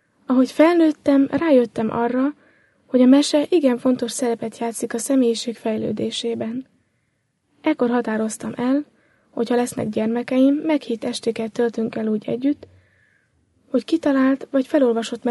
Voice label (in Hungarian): felolvasó; negy mese belole egy hangillusztracioval; pontirasu felolvasas